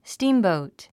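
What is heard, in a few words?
"steamboat", English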